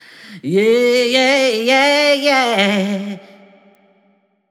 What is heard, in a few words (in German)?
vox wenig hall; abmischen tutorial